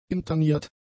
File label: "interniert", German; adjectives